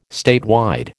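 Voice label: "statewide", American English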